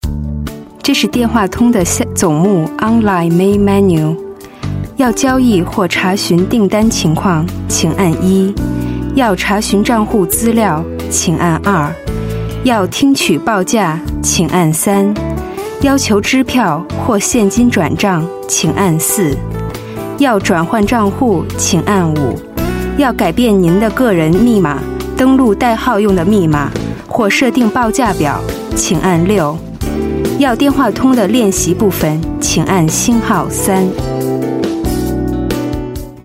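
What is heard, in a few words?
Mandarin interactive voice response, Mandarin China Female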